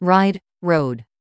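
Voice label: "ride rode", English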